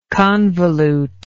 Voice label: "convolutely", English